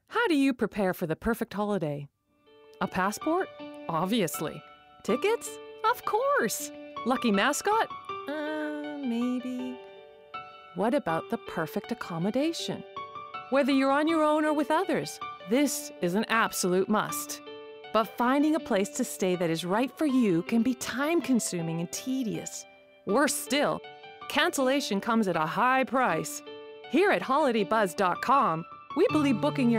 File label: travel, voiceover